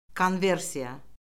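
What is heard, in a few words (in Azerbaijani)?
"konversiya", Azerbaijani; Orfoqrafiya Lüğəti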